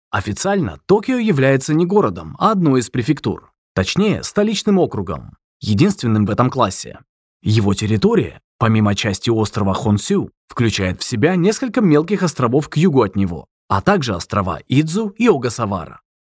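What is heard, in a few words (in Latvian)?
Infografika Russian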